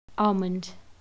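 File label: "almond", American, female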